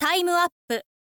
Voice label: info lady time up, voice

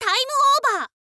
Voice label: "time over", info girl voice

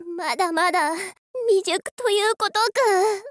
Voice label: princess lose

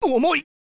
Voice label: wizard guard, voice

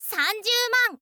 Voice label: "sanzyuuman", info girl voice

